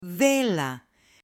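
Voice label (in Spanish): "vela" Spanish, female; UTENSILIOS; AppLenguaje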